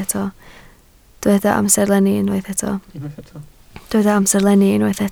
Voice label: "amserlenni", Welsh